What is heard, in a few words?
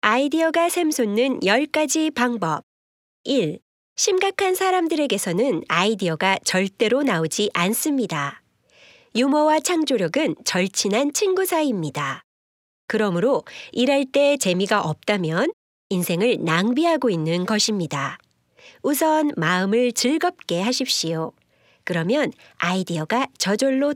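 Korean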